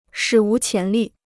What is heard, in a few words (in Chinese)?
"史无前例", Chinese